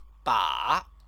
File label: "ba", Chinese